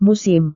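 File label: "musim", Indonesian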